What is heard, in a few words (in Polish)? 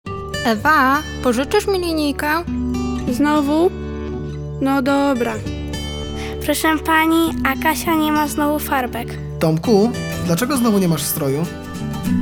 Spot akcja Powrót do szkoły